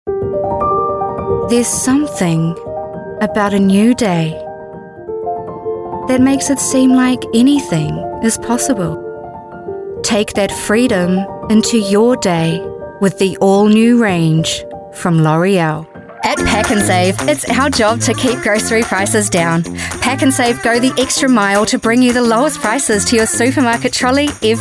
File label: Commercial